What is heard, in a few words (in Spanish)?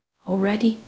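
Voice Acting, es, female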